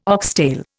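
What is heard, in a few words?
"oxtail", Indian English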